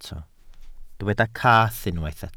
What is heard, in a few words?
"cath", Welsh